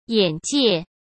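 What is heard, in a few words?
"眼界", Mandarin Chinese; hsk; chinese-flashcards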